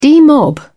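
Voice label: "demob", British English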